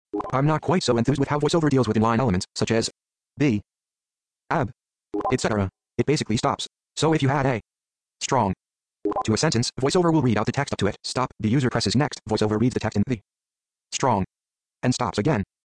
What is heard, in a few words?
voiceover